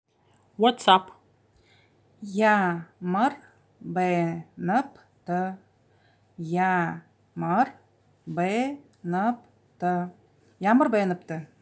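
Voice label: Buryat What's Up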